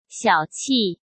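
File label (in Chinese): "小气", Mandarin Chinese; hsk; chinese-flashcards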